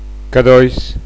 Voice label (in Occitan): "cadois", Gascon